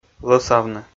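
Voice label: "лӧсавны", Komi, male; kpv audio dict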